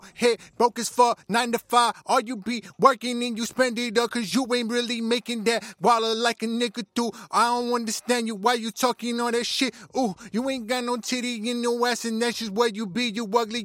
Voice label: acapella, HH 80 Bm